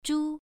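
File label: "zhu", Mandarin Chinese, first tone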